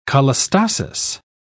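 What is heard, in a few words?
"cholestasis", British English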